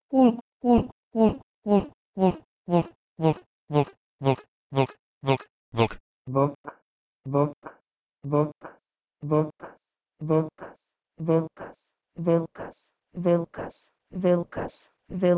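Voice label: Proto-Indo-European wlkw to Lithuanian vilkas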